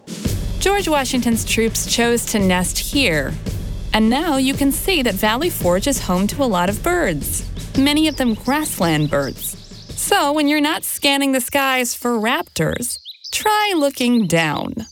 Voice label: Kids Tour